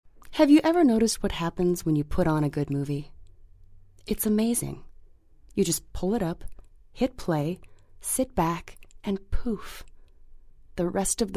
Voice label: Voiceover; Movie Gallery